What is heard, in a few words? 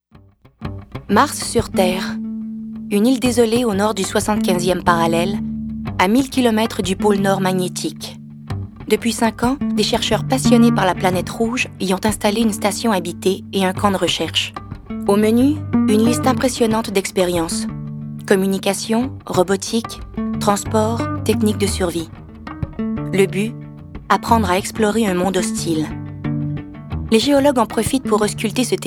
Narration